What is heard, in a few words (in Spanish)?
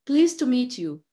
Voice Acting es F0